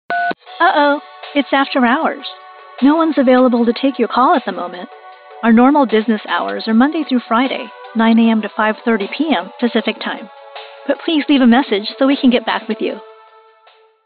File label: Telephone After Hours Voicemail Casual